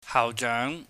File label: "haauhjeung", Cantonese